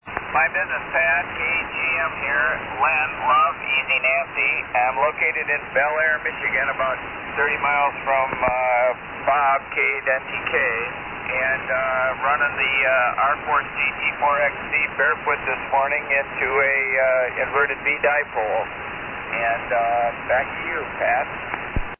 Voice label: Alden, Mi. Drake R-4C T-4XC, vintage ssb